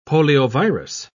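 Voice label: "poliovirus", British English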